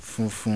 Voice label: "FUNFUN", Yoruba, White